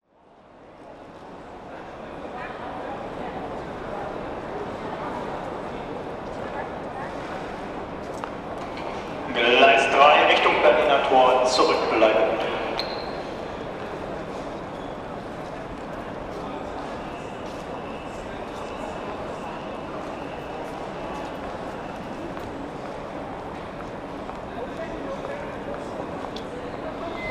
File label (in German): S-Bahn Ansage Hamburg HBF